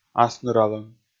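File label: "асныралӧм", Komi, male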